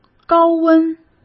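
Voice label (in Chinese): "高温", Mandarin Chinese; hsk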